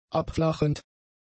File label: "abflachend", German; adjectives